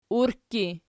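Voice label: "urkki" nominative, Archi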